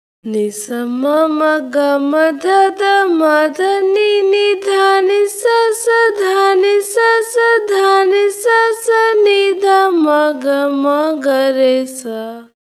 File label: voice, timestretch